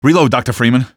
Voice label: reload, male; Half-Life 2